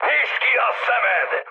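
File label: player friendly fire, mood med, Hungarian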